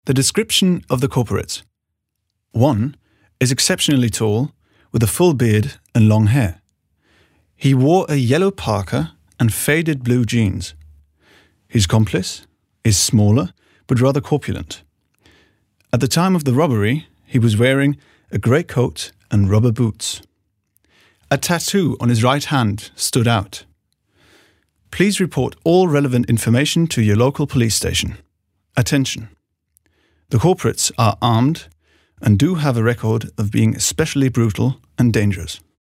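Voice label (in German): British English, Kommentar Neutral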